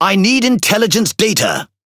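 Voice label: Pirate Speech Need Scouting, English pirate; quick commands; World Of Warships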